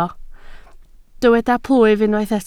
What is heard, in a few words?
"plwyf", Welsh